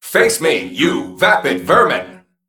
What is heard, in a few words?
Male Mech Play